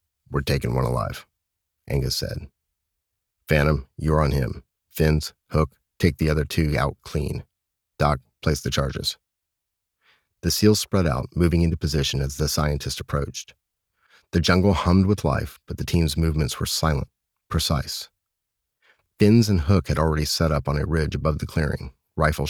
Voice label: Military Fiction Audiobook Narration